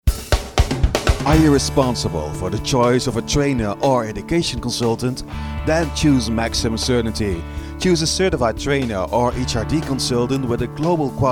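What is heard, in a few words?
Radio Ad English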